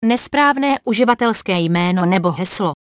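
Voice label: Username Or Password Incorrect, Czech; OpenCore; AXEFIAudio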